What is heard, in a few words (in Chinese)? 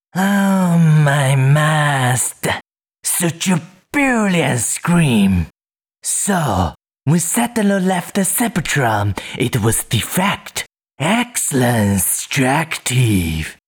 英文; 红蜘蛛